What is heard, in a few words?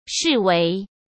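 "视为", Mandarin Chinese; hsk; chinese-flashcards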